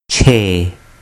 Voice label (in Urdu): "chay", Urdu